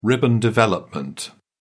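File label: "ribbon development", British English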